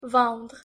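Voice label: "vendre", French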